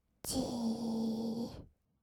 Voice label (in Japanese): "じーっ", kawaii voice; ASMR; 色々なワンショット